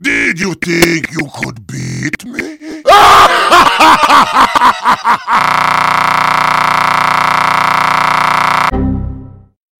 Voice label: rage heavy; missingteam